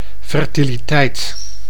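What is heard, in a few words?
"fertiliteit", Dutch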